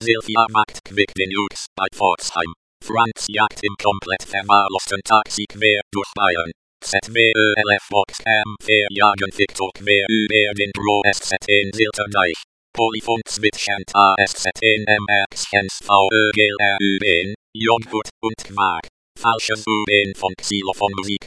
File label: sample german, espeak